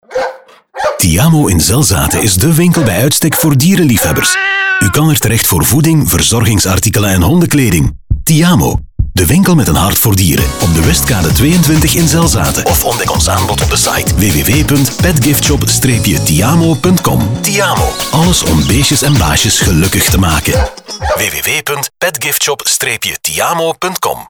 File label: commercial; ti amo dieren